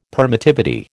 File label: "permittivity", American